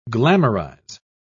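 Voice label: "glamorise", English, British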